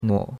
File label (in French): "nuo3", chinois; Cours-de-Chinois